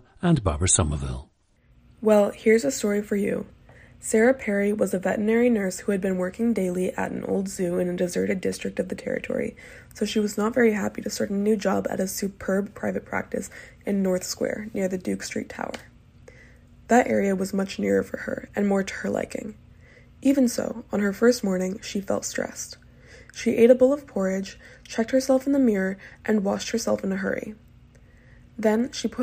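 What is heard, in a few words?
california, International Dialects of English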